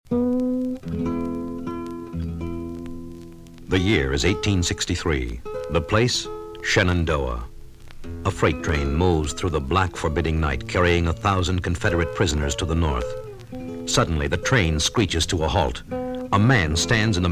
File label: Radio Spot; Shenandoah